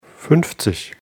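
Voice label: "fünfzig", German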